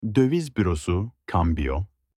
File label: exchange office in turkish